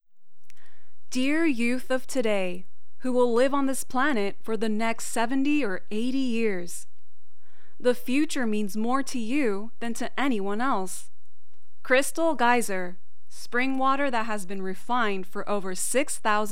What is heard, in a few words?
Narration English